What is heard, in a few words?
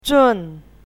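"zhun4", Mandarin pinyin syllable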